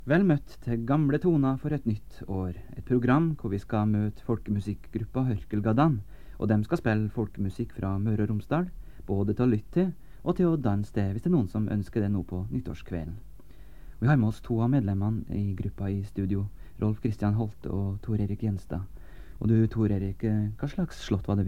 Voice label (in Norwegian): Tale